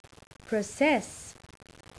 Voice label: "process" verb; Call Centers